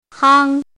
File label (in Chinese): "hang1", 汉字语音; chinese-voice